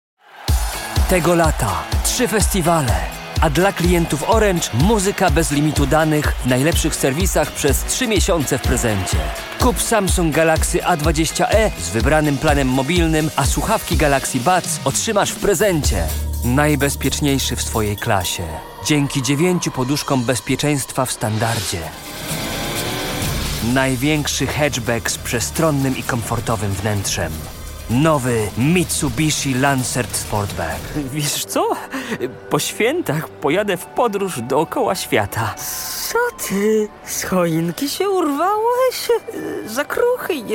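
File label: Polish, Commercial; GoLocalise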